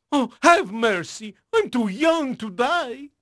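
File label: Portuguese male, voice; Pirates Of The Caribbean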